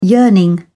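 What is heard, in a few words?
"yearning", British English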